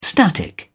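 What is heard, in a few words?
"static", British English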